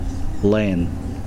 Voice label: "day", Kosraean

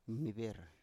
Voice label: "mniber", Biak

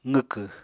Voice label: "qwkw", Apatani

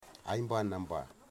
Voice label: "old woman", Wamut

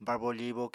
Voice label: "barbo-fibo", apatani

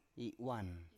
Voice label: "i7uan", Biak

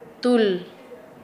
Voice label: "huerta", Nasa Yuwe

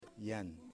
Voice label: "Tree", Yimas

